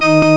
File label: m voice; Amiga Soundtracker Sample Packs